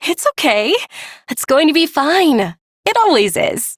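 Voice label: Ambient Female Brisk, Dialog; Duviri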